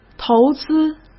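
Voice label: "投资" Mandarin Chinese; hsk; chinese-flashcards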